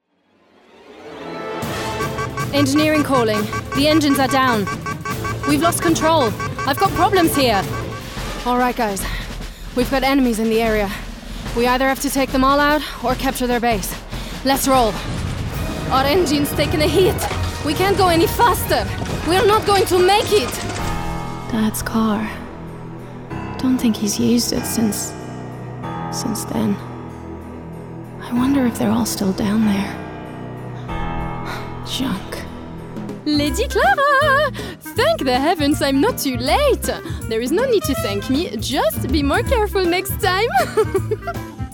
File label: gaming EN